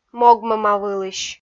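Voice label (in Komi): "могмӧмавылысь", Komi, female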